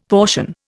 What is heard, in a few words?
"portion", Indian; Dictionary